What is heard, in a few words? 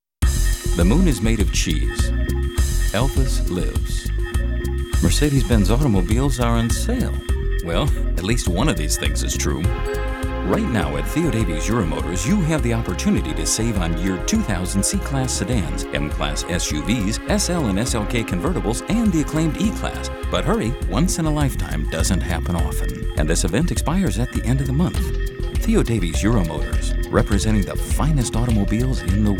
TV Spot Voiceover; Theodavies Euromotors